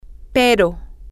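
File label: but, Spanish, female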